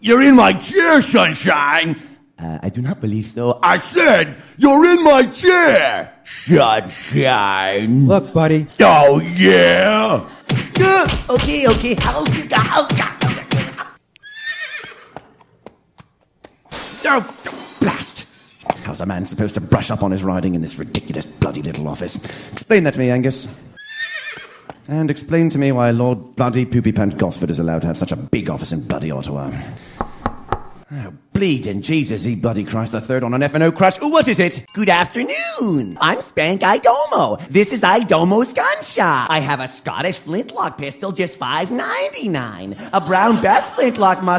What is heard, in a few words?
character voice